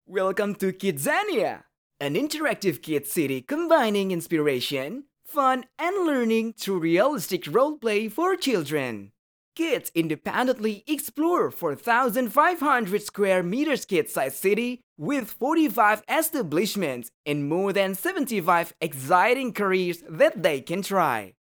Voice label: ENGLISH CASUAL, smiley voice is somehow soothing and believable